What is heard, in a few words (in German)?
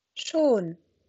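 "schon", German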